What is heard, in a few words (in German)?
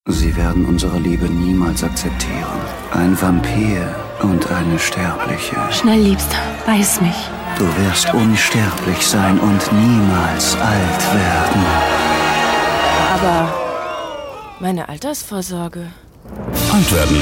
Werbung; Synchron